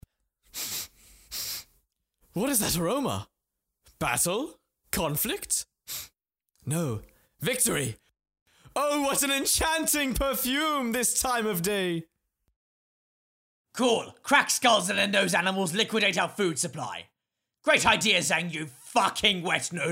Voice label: Character Reel

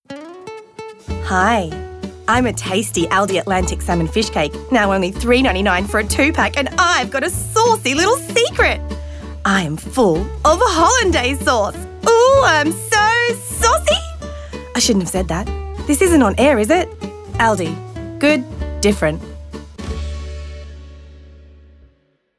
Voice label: Sexy; Aldi

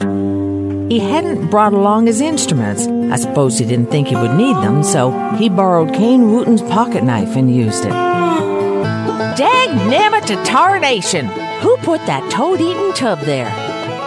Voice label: Character

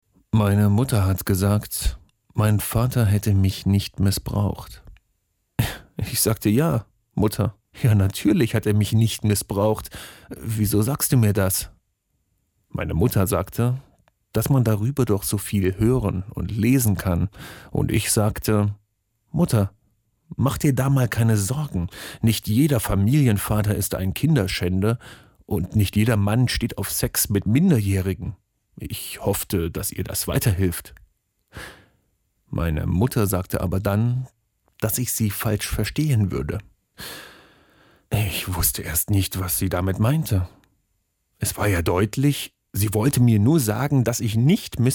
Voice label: Audiobook; Betty

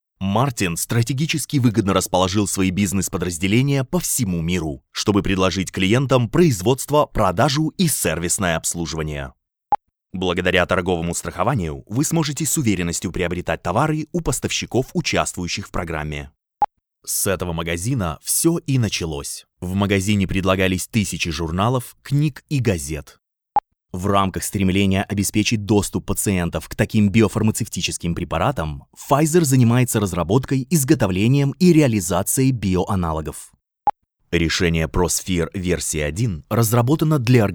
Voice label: Educational Podcast eBook Narration Radio RUSSIAN

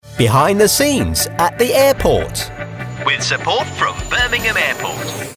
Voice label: imaging

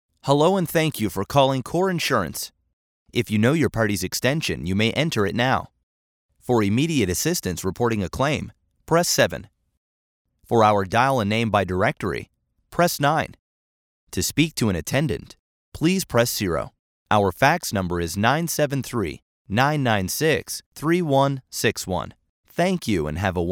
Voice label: IVR Read

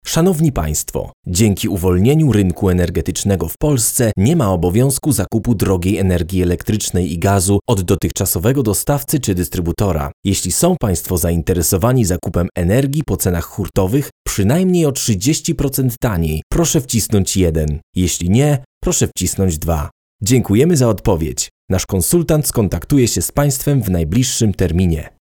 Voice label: IVR

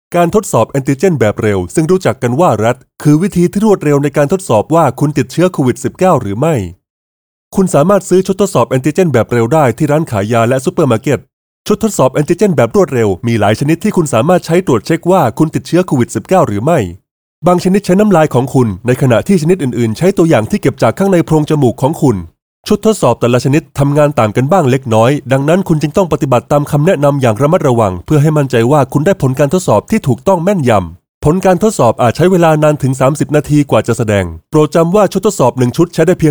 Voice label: Narration Male Energetic

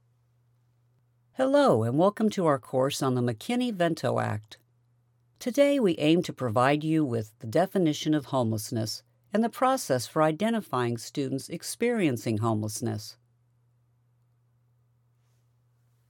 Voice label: Educator Presentation

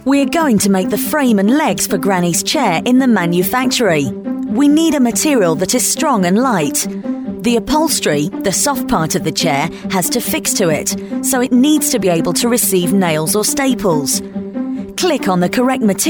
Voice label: short kids elearning

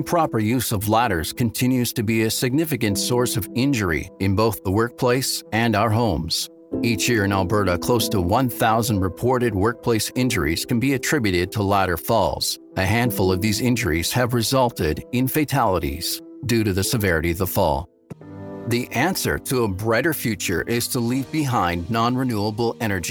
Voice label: Corporate Narration

